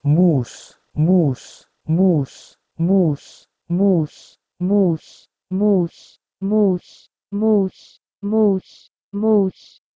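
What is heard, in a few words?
Proto-Indo-European muh1s to Persian muS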